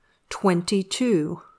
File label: "twenty-two", English